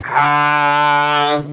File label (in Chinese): 哈 D5 颤音; 哈比下; 电棍